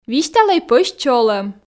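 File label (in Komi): "висьталӧй пӧсь чолӧм", Komi, female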